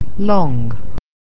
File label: "long", English